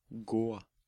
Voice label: "Gå", Norwegian

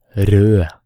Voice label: "Rød", Norwegian

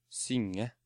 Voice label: "Synge", Norwegian

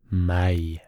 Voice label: "Meg", Norwegian

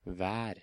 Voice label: "Hver", Norwegian

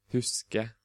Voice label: "Huske", Norwegian

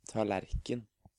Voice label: "Tallerken", Norwegian

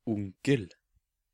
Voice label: "Onkel", Norwegian

